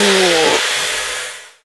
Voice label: VOICE, VOICE-FX

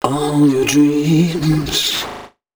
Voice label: male d# 130, short hooks, Dance Voice; Mutekki Media; Dance Ultra Voice VOL-1

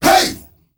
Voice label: "HEY", World Voices, Afro noise; ILIO; Vocal Planet VOL-5

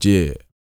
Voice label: "YEAH", Vocal, R&B Dance, HIP-HOP; Vocal Planet

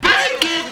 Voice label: Male FX Vocals; Houseworx

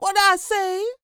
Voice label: DIALOG, R&B Dance, FEM. SPOKEN

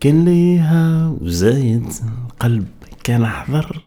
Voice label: POET, POET VOICE, Arabian Traditions

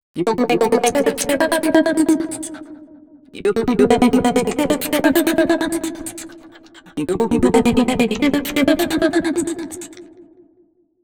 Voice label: female 132, voice; FX; Mutekki Media; Dance Ultra Voice VOL-1

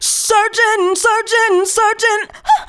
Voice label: SEARCHIN, FEMALE VOICE; Best Service; Hallelujah

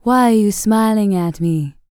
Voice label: FEM SPOKEN; ILIO; Vocal Planet VOL-4; R&B & Dance

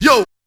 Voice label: G#3 Yo!, Yamaha PSR-E323 Sound Effect Kit

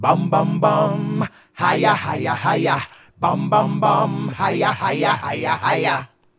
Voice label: Male Vocal; Vocals; Sonic Foundry (Sony Creative Software); Mac Money

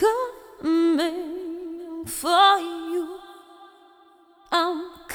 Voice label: Mixed Berry Jam Voice, 70s Analog Funk

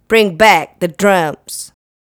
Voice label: Bring Back The Drums, Vocals, Female

